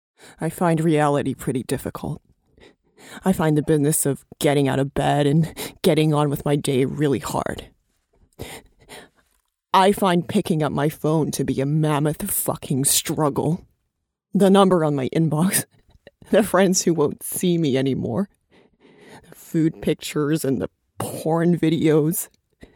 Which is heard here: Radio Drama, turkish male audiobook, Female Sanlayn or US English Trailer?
Radio Drama